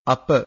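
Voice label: "apa", Pali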